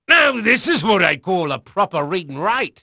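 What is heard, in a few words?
English male; Pirates Of The Caribbean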